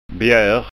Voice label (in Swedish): "bjar", skånska